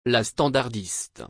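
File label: "La standardiste", French; اپراتور تلفن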